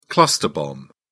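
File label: "cluster bomb", English, British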